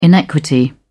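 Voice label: "inequity", British English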